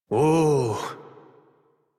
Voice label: Horse Stop Walk Adult; Warframe; Duviri